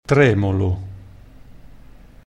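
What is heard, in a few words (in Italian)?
"tremolo", Italian pronunciation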